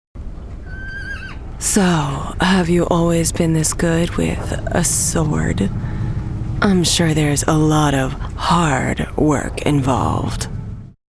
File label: firey flirt; video games animation